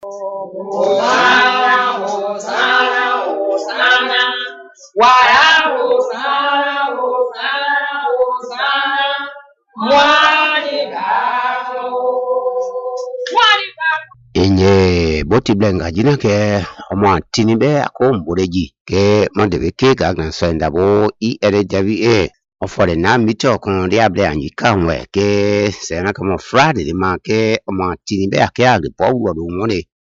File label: bassa program preaching